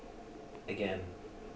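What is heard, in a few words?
"again", Canadian, male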